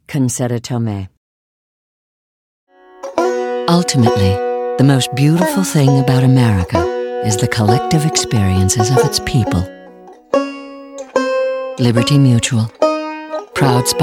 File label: commercial; Innovative LA